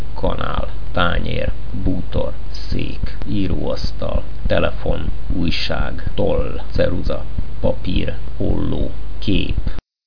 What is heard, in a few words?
hungarian objects